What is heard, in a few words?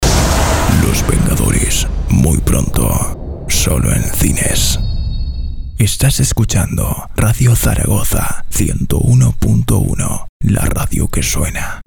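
promo spanish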